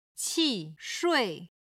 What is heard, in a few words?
"qi4shui4", Chinese